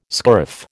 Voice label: "scurf", American